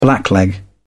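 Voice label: "blackleg", British English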